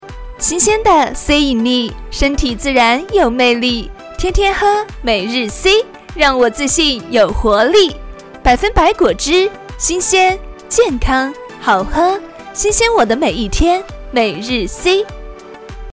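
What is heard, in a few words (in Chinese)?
每日广告轻快, 年轻活力配音 青年（18-35） 普通话 女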